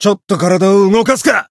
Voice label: encount, jp; dennie